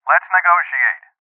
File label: Voices Postpone Male